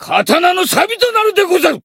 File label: encount, jp; cyrus